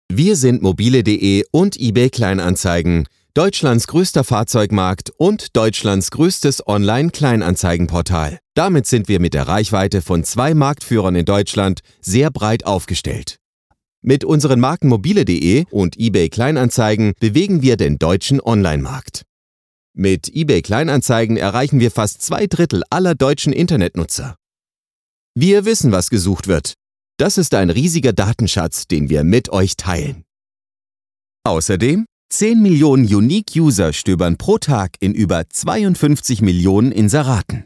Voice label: Voice Over DE; Ebay; Mobile

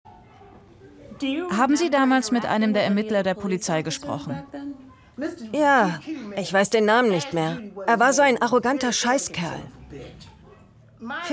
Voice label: Voice Over

